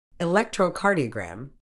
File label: "electrocardiogram", uk